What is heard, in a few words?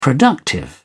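"productive", English, British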